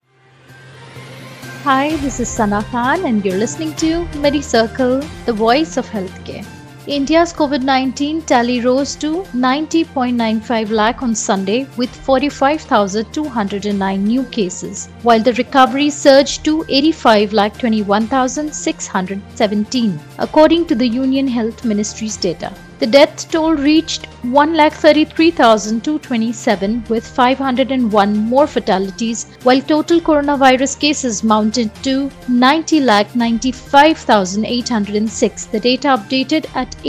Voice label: english news